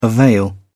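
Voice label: "avail", English, British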